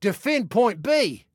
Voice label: DEFEND, Male

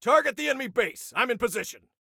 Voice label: Target Base, Soldier Male; Soldier Male 02

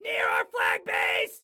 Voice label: Enemy Near Our Flag, Excited Guy

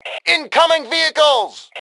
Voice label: Warn Vehicle, Male; T2 Male 04

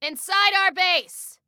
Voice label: Warn Enemy Inside Our Base, Female

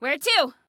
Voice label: Need Where To, Female; Female 02

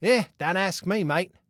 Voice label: Team Dont Know, Male; Male 02

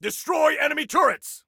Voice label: Attack Turrets, Soldier Male

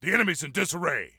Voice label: Enemy Disarray, Soldier Male

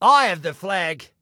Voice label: Flag I Have, Male

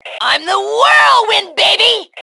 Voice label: Taunt Brag, Female

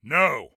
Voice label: No, Soldier Male; Soldier Male 03

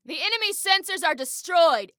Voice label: Enemy Sensors, Female

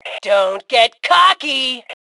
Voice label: Taunt Sarcasm, Female; T2 Female 04

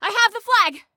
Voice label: Flag I Have, Female; Female 02

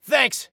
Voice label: Thanks, Soldier Male

